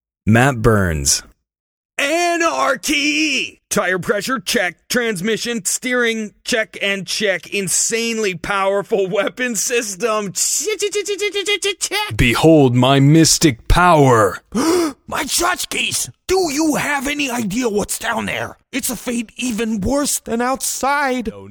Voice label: men animation

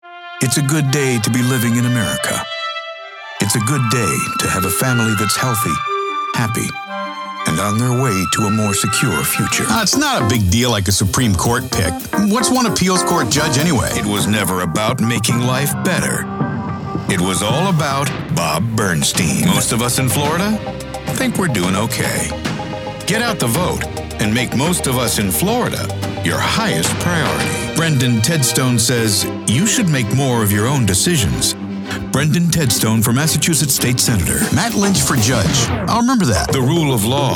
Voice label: men political

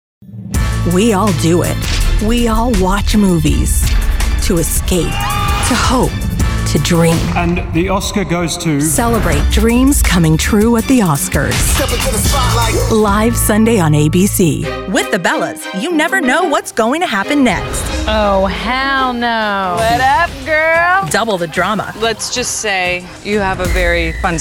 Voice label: women promos; A conversational dialogue pro with top-notch improv skills (Groundlings, Second City), serving up humor and / or heartfelt connection with

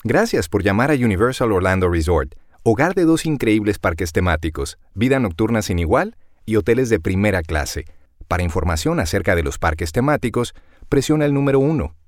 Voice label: spanish telephone

